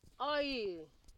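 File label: "oil", Nigerian Pidgin, female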